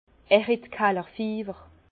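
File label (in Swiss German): "kallerfiewer", Alsace Bas Rhin; vin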